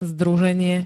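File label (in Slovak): "združenie", Slovak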